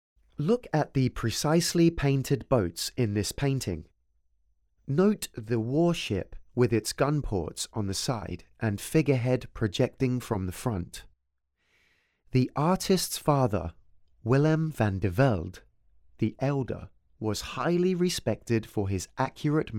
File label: English, audio guide